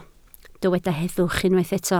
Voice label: "heddwch", Welsh

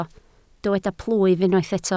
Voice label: "plwyf", Welsh

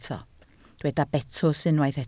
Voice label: "betws", Welsh

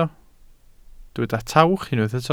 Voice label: "tawch", Welsh

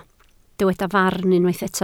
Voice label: "farn", Welsh

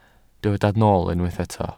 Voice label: "nool", Welsh

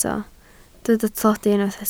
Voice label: "tlodi", Welsh

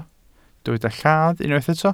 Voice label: "lladd", welsh

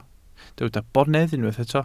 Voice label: "bonedd", welsh

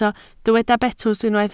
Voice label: "betws", Welsh

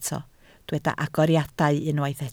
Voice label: "agoriadau", Welsh

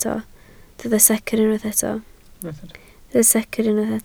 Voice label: "secr", Welsh

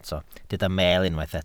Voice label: "meel", Welsh

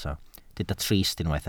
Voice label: "trist", Welsh

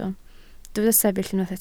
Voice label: "sefyll", Welsh

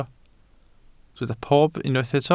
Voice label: "pob", Welsh

